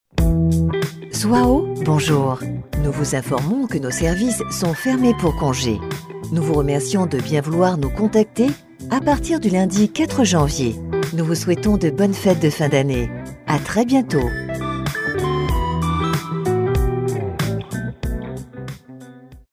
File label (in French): attente tel